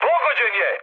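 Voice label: Serbian aircraft destroyed mood high; speech; War Thunder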